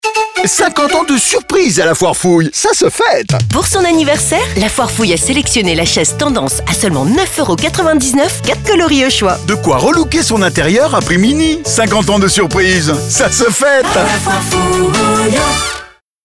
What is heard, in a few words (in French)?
Radio spot